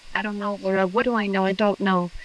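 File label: voice acting, es, female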